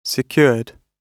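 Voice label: "secured", British English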